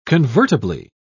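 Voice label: "convertibly", British English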